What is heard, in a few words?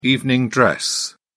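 "evening dress", British English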